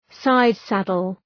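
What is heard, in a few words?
"side saddle", English; {‘saıd,sædəl}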